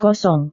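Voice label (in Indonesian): "kosong", Indonesian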